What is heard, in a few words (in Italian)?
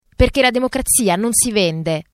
spot acqua voce